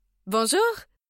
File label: bonjour, voix; fr